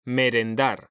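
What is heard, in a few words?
"merendar", Spanish; mekanta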